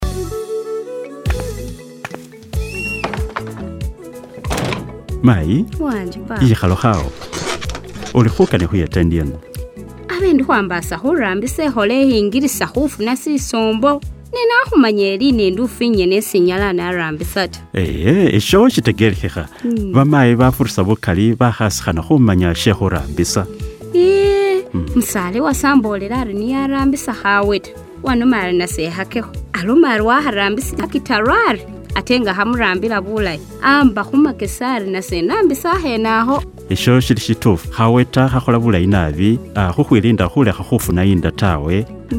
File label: IUD Health Worker Spot Lumasaba